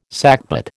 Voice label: "sackbut", American